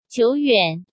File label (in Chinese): "久远", Chinese